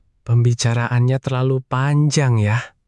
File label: indonesian phrases